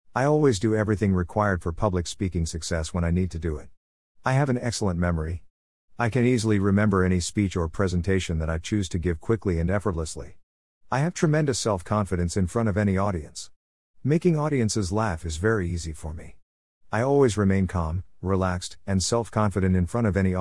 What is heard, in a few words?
Self Talk Male